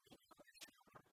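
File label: Komi, female; ме неуна сёрма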